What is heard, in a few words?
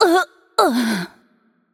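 Death, Korean; ko KR; VO; bloom minigame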